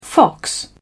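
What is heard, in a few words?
"fox", English, British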